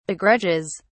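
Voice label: "begrudges", en, uk British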